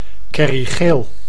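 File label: "kerriegeel", Dutch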